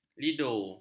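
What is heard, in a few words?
"lido", Malay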